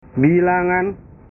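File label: "bilangan", Indonesian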